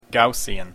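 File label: "Gaussian", English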